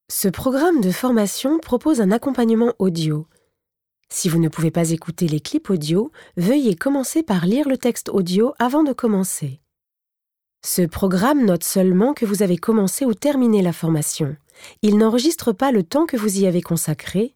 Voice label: E LEARNING